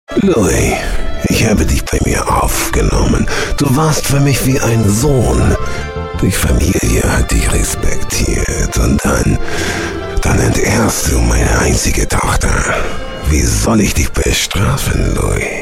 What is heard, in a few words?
German, Mafia